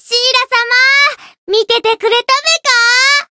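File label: Voice win, Japanese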